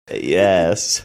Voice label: saying yes valley girl accent

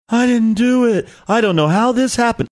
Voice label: I didn't do it I don't know how funny

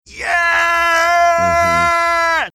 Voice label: drawn out "yeah"

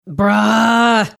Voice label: drawn out bruh

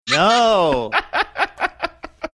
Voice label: saying no laughing

